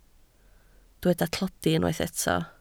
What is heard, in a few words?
"tlodi", Welsh